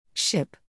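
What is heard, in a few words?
"ship", English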